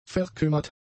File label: "verkümmert", German; adjectives